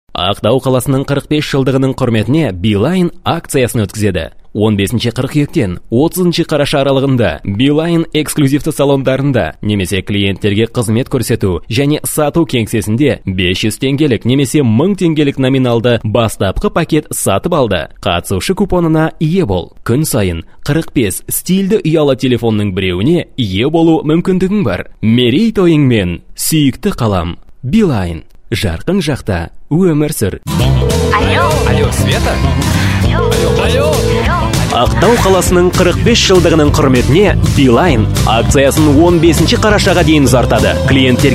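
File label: kazak male narration; voice demos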